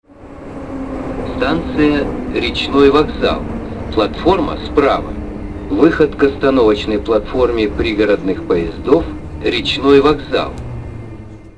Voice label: male